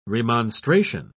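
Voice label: "remonstration", English, British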